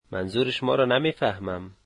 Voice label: I dont understand you, dari